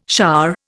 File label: "shah", Indian